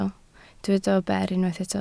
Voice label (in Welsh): "ber", Welsh